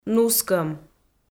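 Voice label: "нускӧм", Komi, female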